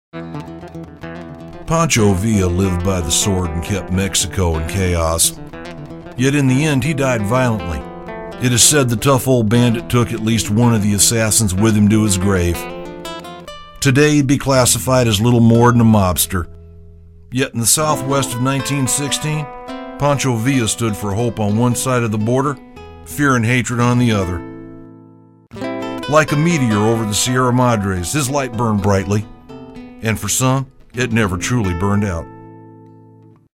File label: narration